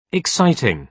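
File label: "exciting", British English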